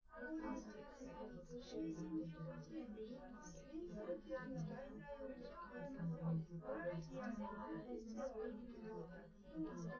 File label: female